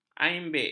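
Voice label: "iambik", Malay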